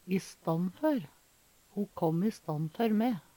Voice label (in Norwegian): i stann for, Numedalsmål